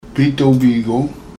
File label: biitoobiigong pronunciation; hattie cove